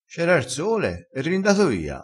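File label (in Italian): "rinda", dialettale, crespinese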